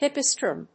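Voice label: "Hippeastrum", English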